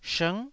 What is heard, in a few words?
"shng9", taiwanese